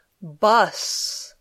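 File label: "bus", English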